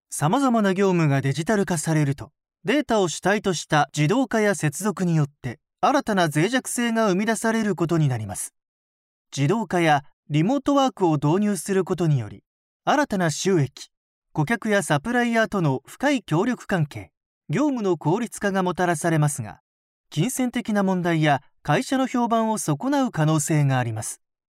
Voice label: Japanese narration